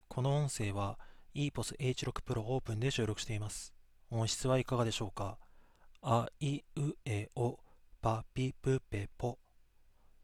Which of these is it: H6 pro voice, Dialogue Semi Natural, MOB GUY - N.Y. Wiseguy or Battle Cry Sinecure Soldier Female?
H6 pro voice